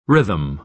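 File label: "rhythm", American English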